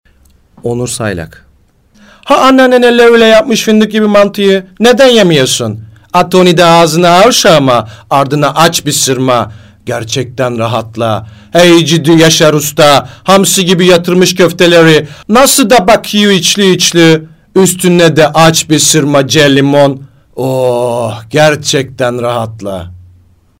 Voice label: diyalekt, turkish dialect voices